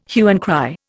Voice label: "hue and cry", Indian